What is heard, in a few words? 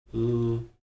"l", Heiltsuk